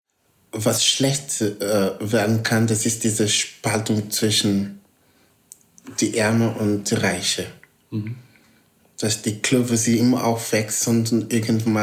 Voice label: portugiesisch mosambik

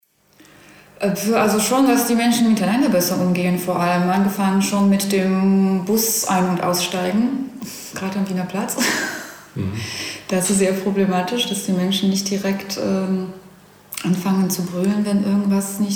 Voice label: polnisch

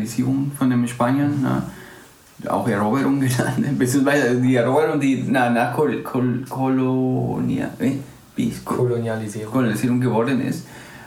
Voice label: spanisch guatemala

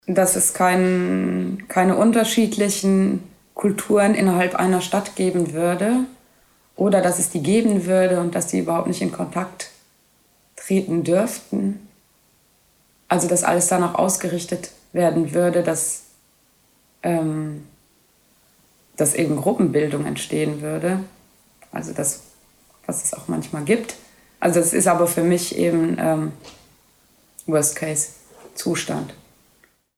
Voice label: luxemburgisch

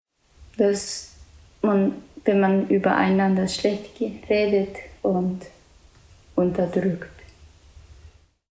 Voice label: japanisch

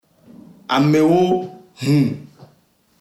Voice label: ewe togo

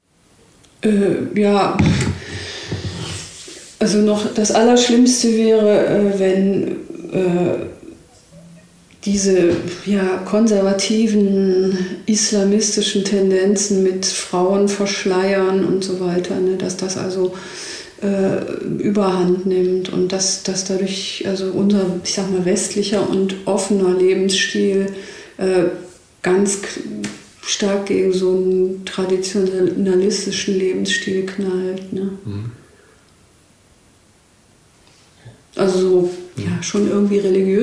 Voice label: deutsch

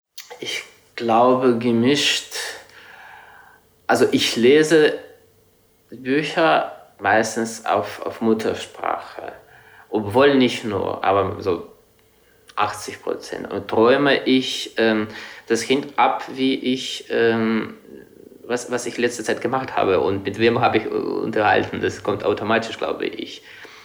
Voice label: russisch

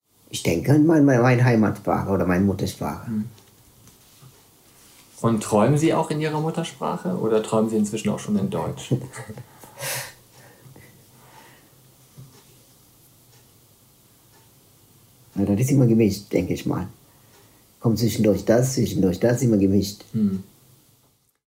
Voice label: tamilisch sri lanka

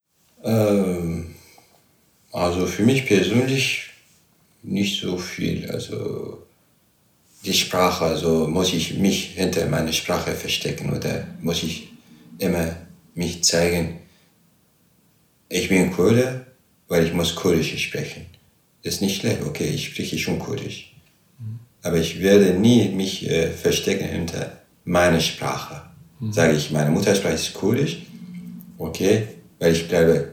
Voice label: arabisch syrien

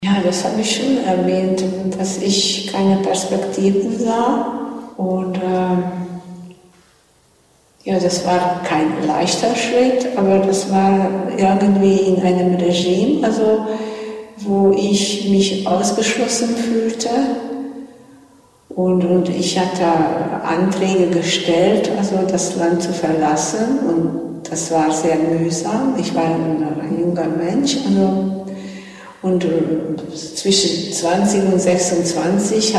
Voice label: ungarisch

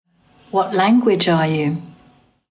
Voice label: englisch deutsch

